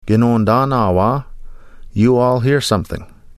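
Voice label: "Gnoondaanaawaa", Ojibwe; You all hear something; Nanabush Looses The Meat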